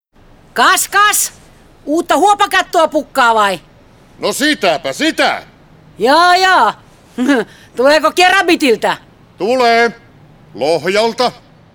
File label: kerabit radio; blink helsinki